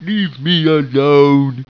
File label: annoyed; war2